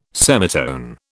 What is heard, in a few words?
"semitone", British